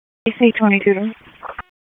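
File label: Raleigh Police Northeast District Dispatch, p25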